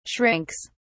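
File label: "shrinks", British English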